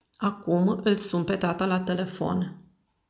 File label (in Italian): Rumeno